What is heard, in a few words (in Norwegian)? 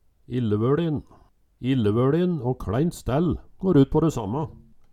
"illevøLin", Numedalsmål